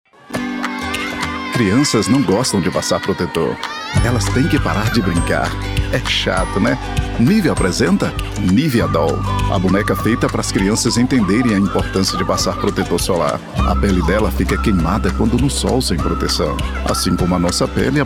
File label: portuguese brazilian video games